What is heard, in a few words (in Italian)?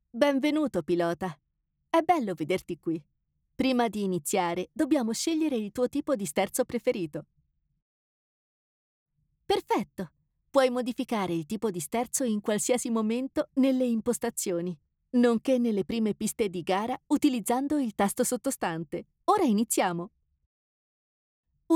tutorial voice over italiano